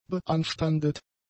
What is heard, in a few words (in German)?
"beanstandet", German; adjectives